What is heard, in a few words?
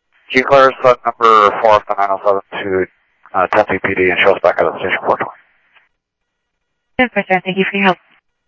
scanner audio trunk